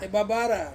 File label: "babara", Ansus; to swell